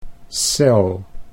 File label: "cel", Dutch